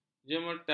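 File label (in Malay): "jemertas", Malay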